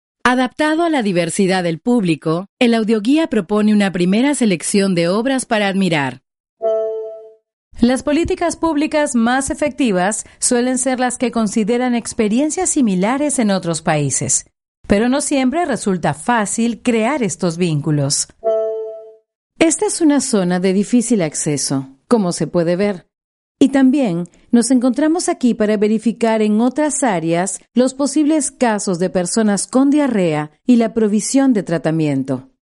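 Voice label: Spanish Latin American, female, narration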